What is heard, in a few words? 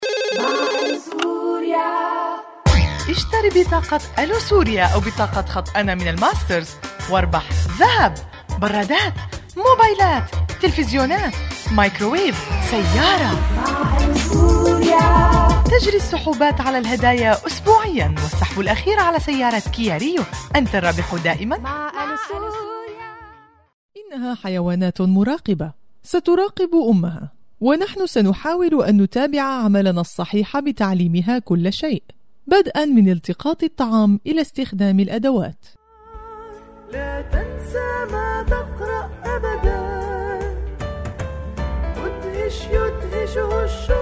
arabic, voiceovers